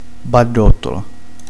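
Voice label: "donnola", Italian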